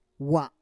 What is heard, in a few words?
"wa", Roon